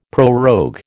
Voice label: "prorogue", American